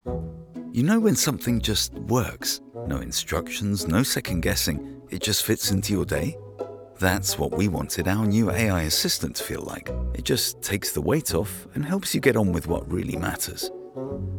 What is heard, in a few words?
commercial, voice acting